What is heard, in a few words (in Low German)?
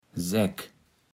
"Säck", kaevels platt